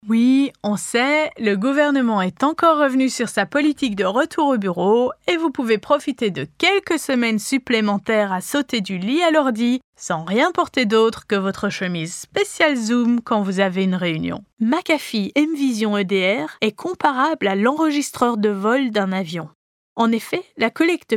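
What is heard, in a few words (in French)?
Corporate, French; non-binaire; Artiste voix-off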